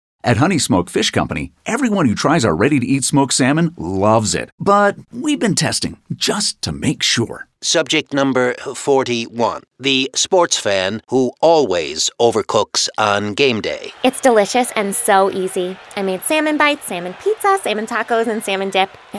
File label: radio